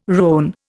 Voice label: "rone", Indian